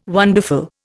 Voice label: "wonderful", Indian